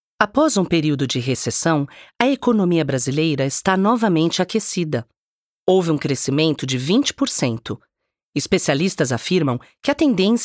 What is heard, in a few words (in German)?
mulher portugiesisch